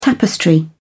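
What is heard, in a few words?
"tapestry", British English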